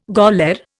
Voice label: "gaoler", Indian